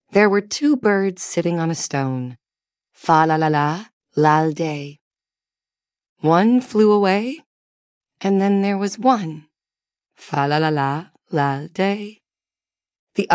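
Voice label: female US, classic rhymes; There Were Two Birds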